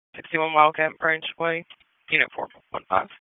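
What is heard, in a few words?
Raleigh Police Southeast District Dispatch; wake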